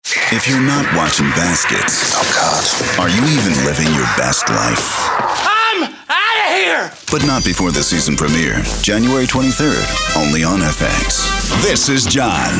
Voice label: Promo